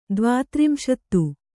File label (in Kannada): "ದ್ವಾತ್ರಿಂಶತಿ", Kannada